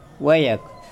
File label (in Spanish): Nawat palabras largo; pipil